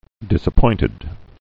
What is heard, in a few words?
"disappointed"